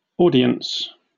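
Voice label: "audience", British English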